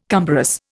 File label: "cumbrous", Indian